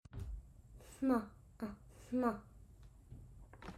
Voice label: Voiceless linguolabial nasal